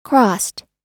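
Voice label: "crossed", US English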